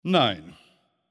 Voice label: Neutral No Layer; Speech; Civ5; Bismark

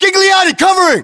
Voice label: response covering, battlechatter us

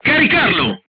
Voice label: voice message load, crew tanks italian

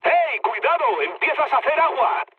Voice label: damaged water, mood med, speech; War Thunder

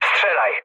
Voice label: attack position mood low, speech pol; War Thunder

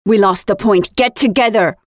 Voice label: Defend Failed, Voiceovers; Project Argo

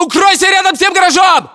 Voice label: order cover gate, ru

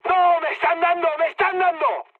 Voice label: aircraft damaged, mood high, speech; War Thunder

